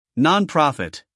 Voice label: "nonprofit", US male; 1000 hours

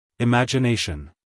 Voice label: "imagination" US English, male; everyone-can-use-english

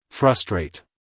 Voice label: "frustrate", US, male

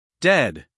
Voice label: "dead", US English, male; everyone-can-use-english

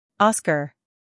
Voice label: "Oscar" US English; sounds-of-american-english; everyone-can-use-english

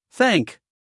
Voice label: "thank", US English, male; everyone-can-use-english

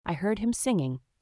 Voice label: sentence heard him, US English

